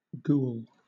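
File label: "ghoul", British English; pronunciations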